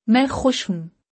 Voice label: I am happy, Urdu; Expressing Feelings

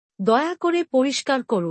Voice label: Clean up, please. Bangla; Time and Routine

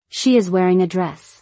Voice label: "She is wearing a dress.", English; Dress and Appearance

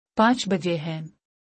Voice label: It is 5 o’clock. Urdu; Time and Routine

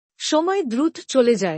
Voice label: Time flies! Bangla; Time and Routine 2

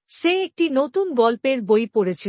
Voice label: She read a new storybook, Bangla; Past Tense

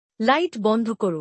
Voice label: Turn off the light bangla; Time and Routine

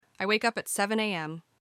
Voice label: I wake up at 7 AM, English; Expressing Time and Day

